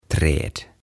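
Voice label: "Träd", Swedish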